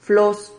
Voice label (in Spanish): "flos", Silabas